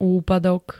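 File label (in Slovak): "úpadok", Slovenské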